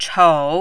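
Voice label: "chou", Mandarin, tone 3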